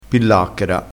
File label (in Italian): "pillacchera", dialettale, crespinese